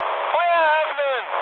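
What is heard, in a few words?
German attack position mood med, speech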